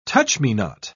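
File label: "touch-me-not", English, British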